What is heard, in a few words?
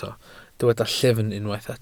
"llyfn", Welsh